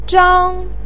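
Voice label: "zhang1", Mandarin pinyin; 张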